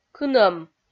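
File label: "кынӧм", Komi-Zyrian, female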